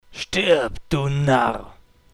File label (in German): Narr; Stirb, du Narr!